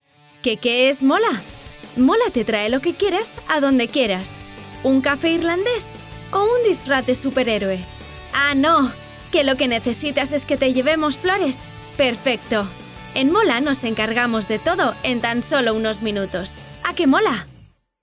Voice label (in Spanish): PUBLICIDAD CAS